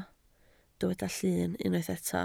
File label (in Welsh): "llun" Welsh